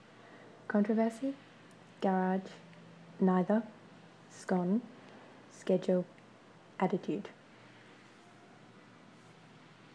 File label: female brisbane